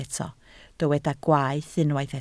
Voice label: "gwaeth", Welsh

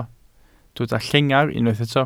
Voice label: "llengar" Welsh

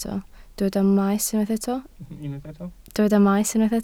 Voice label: "maes" Welsh

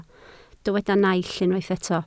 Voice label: "naill", Welsh

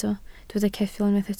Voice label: "ceffyl" Welsh

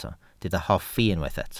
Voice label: "hoffi", Welsh

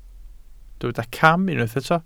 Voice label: "cam", Welsh

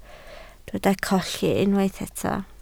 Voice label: "colli", Welsh

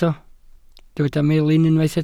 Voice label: "melin", Welsh

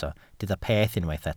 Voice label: "peth" Welsh

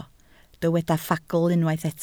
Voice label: "ffagl", Welsh

